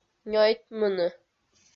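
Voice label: "нёйтмыны", Komi, female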